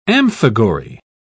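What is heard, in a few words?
"amphigory", British English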